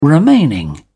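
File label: "remaining", British English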